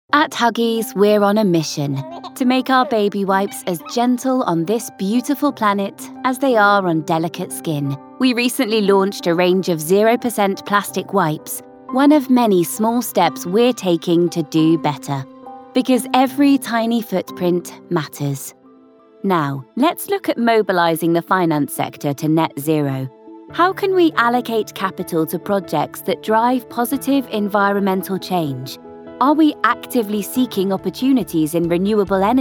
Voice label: Narration Showreel; corporate narration demo